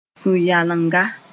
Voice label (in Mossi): "sũ-yalenga", Moore; nausea